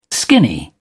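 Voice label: "skinny", British English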